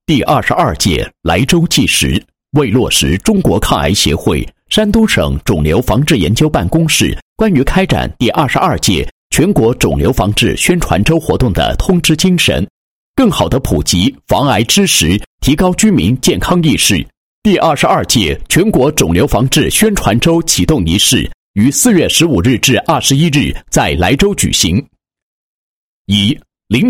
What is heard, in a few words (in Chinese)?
男 纪实专题; 全国肿瘤防治宣传纪实